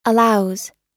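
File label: "allows", US English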